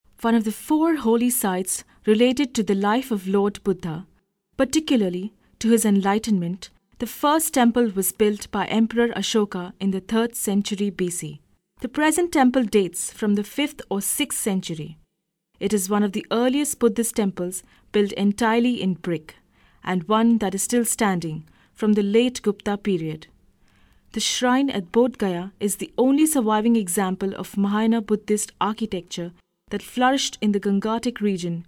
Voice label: Indian Accents; foreign languages

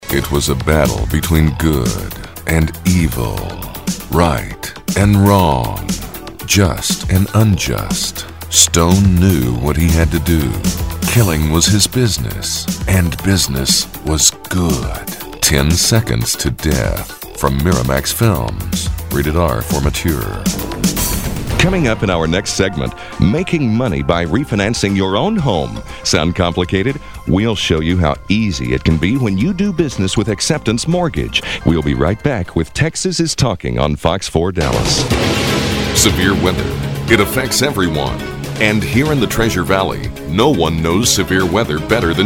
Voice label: Promo, male voice talent

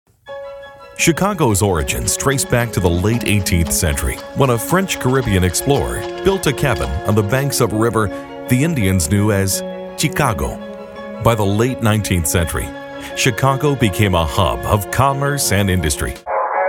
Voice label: narr, male voice talent

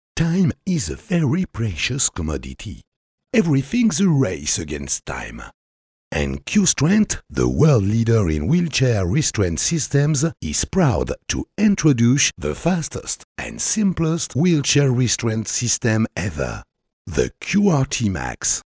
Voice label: french accent, foreign accent voiceovers; French Accent / Voice Overs / Male voice overs; foreign languages

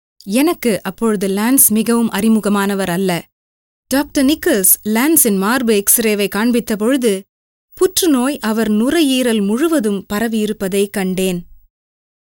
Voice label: Tamil; foreign languages

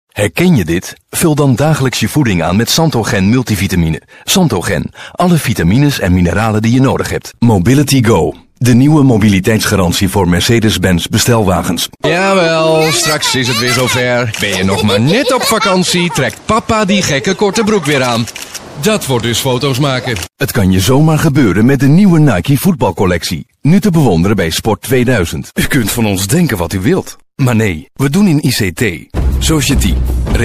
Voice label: dutch; foreign languages

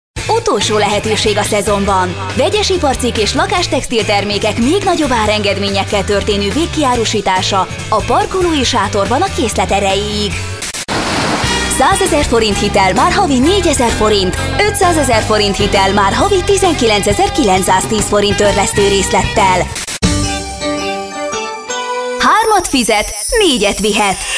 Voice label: Hungarian; foreign languages

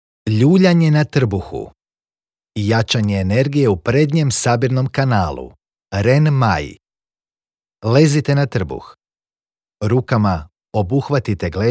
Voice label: Croation; foreign languages

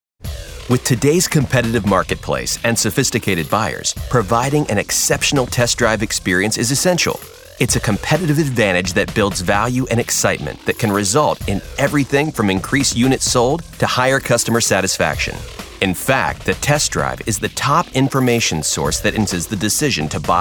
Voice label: Narr, male voice talent

